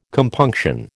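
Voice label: "compunction", American English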